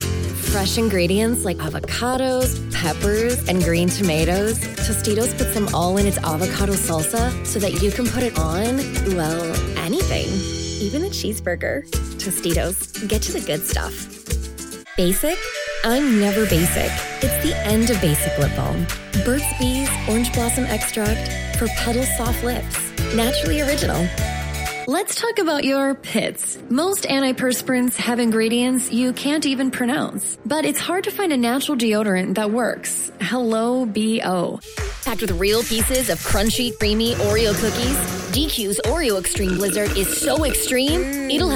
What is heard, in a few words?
english american commercial; United States; professional English voice